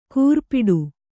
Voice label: "ಕೂರ್ಪಿಡು", kannada

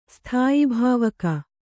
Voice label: "ಸ್ಥಾಯಿಭಾವಕ", Kannada

